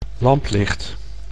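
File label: "lamplicht", Dutch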